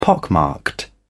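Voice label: "pockmarked", British English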